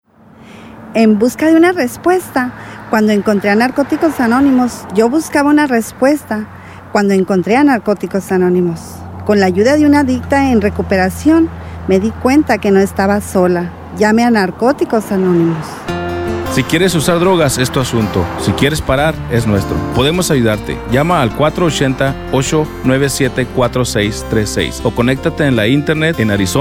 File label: Audio PSA Spanish; West Valley Area Arizona